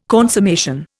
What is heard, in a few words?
"consummation", Indian